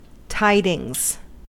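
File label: "tidings", US English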